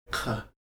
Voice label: "q", Heiltsuk